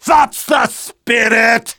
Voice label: Demoman positive vocalization